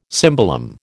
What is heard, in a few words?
"cimbalom", American